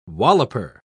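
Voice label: "walloper", English, British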